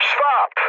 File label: enemy detected mood med, speech pol; War Thunder